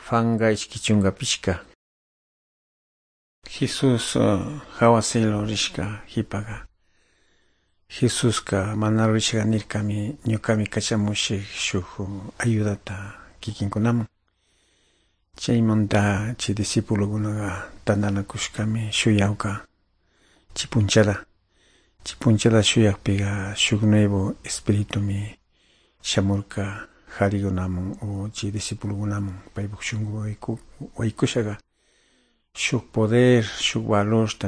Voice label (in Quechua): good news quichua de calderon; quechua; bible